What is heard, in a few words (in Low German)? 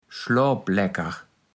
"schlopplecker", Platt